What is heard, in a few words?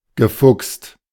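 "gefuchst", German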